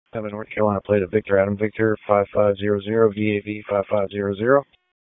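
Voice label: Police District Dispatch, p25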